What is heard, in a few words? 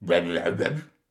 crazy mumble; mvm forever